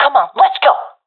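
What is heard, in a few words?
request move, professional female; Counter Strike Model Pack; CS:GO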